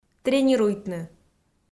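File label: "тренируйтны", Komi, female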